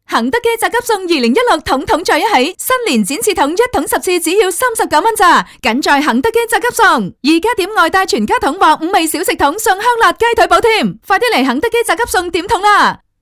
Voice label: 女 广告; 宅急送

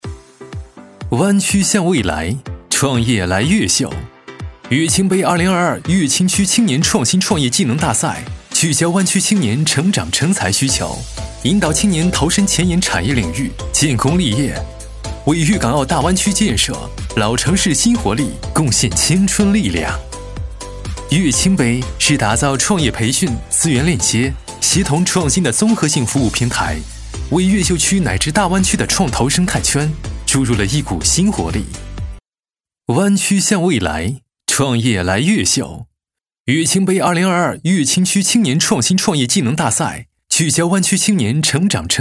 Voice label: 男 科技风 年轻; 越秀区青年创新

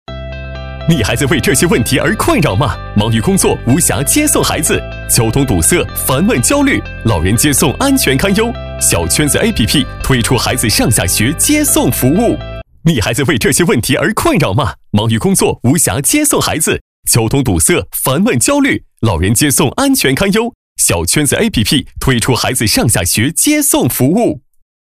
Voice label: 男; 小圈子

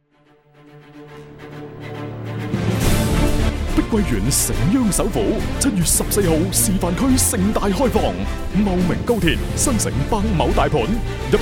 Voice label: 粤男; 男【地产】碧桂园

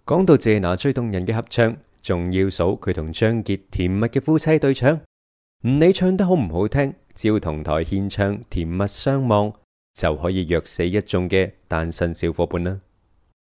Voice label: 粤男 旁白

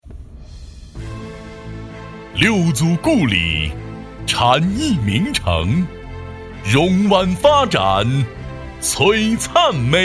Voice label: 男 宣传片; 六祖

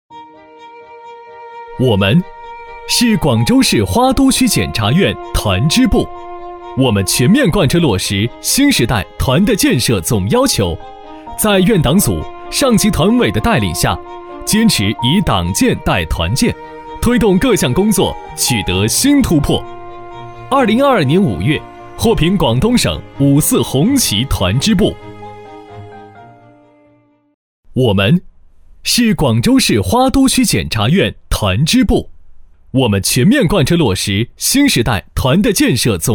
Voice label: 男 宣传片; 青春闪耀检察蓝

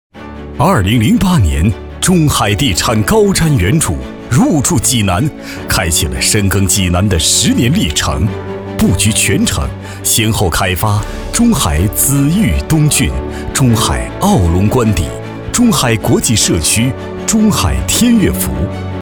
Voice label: 男 广告; 中海地产

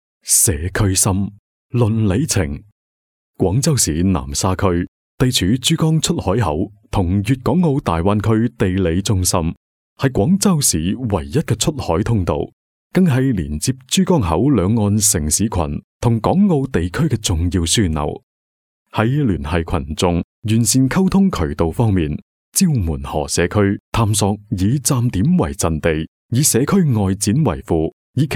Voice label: 男【专题】社区; 粤男

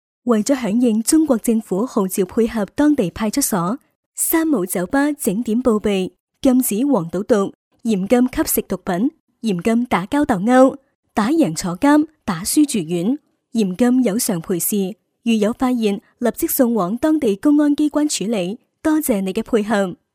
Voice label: 粤 女 提示; 倾城666酒吧

